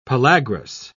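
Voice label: "pellagrous", English, British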